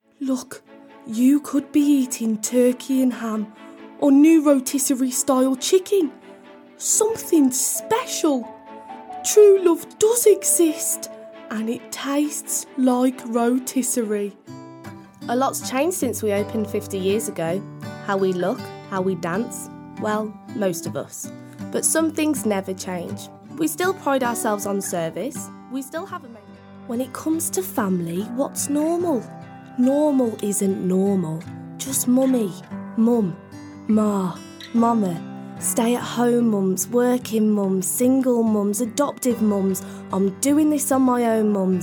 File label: Brummie